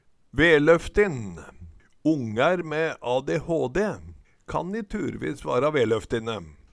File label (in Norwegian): "veloftin", Numedalsmål